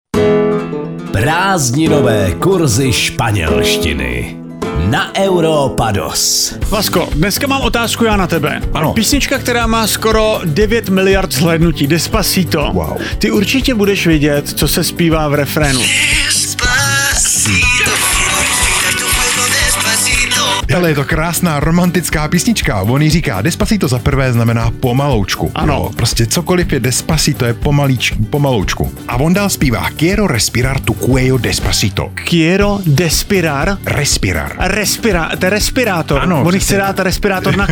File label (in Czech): spanelstina spanelstina